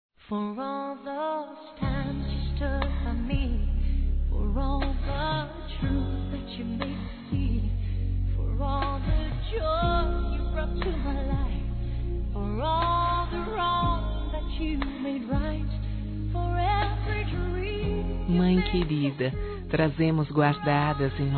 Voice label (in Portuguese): Aniversário Mãe duas vozes, Telemensagens; Aniversários; Aniv Familiar